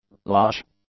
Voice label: "lush", English; adjectives